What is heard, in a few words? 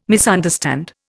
"misunderstand", Indian